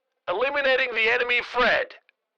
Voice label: Military radio voice; eliminating the enemy threat